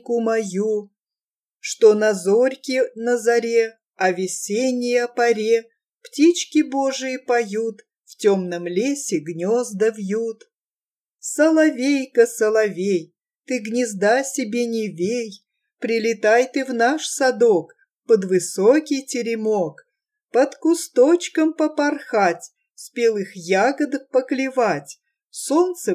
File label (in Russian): колыбельная песня